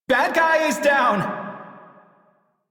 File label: Crew Enemy Defeat, Male, en; Dialog; Warframe; Railjack Crew; Perrin Sequence